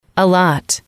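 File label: "a lot", female, English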